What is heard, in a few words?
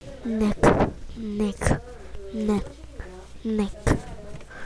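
"neck", spoken word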